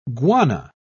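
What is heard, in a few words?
"guana", British English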